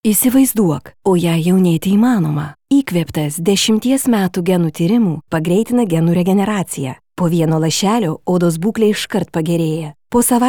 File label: lithuanian female commercial